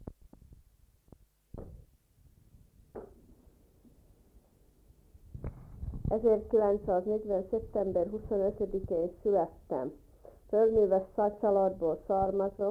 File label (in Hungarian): nyelvjaras gyujtesek